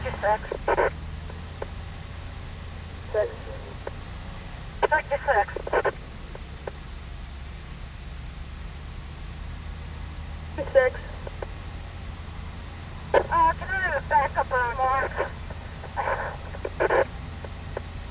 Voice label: police radio; de Smalltown